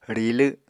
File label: "rilw", Apatani